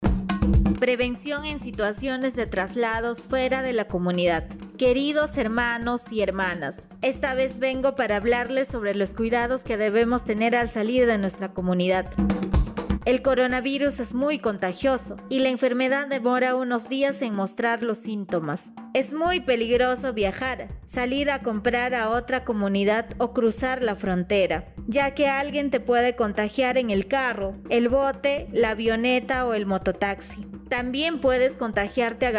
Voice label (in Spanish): castellano, spot de radio; prevencion en traslados fuera de la comunidad; precauciones al salir de mi comunidad